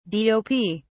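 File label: "dop", British English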